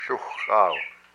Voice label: I am fat, hupa